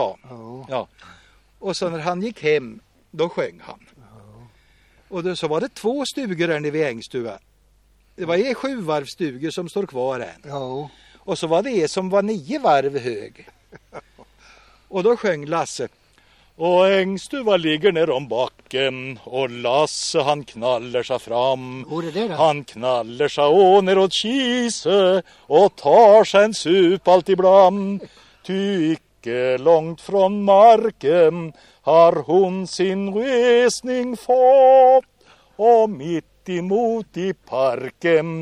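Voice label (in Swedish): Kisa Östergötland, Svenska sagor, dialekter; Folkminnen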